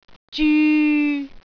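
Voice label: "zyu3", Cantonese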